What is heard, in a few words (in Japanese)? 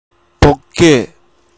"bokkee", hougen; ぼっけぇ; 「とても」、「すごい」の意。; 方言